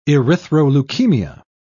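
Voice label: "erythroleukemia", British English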